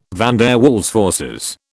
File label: "van der Waals forces", British